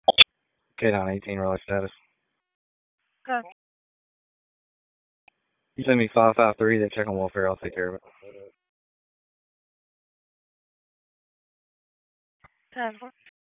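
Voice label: Police District Dispatch; Raleigh; Northeast; p25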